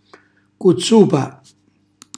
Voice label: "cuzzupa", Calabrese